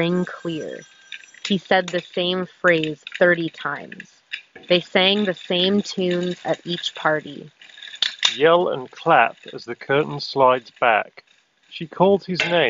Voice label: Sennheiser Momentum True Wireless 3 Office microphone sample